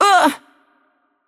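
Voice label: Greek attack heavy voice; bloom minigame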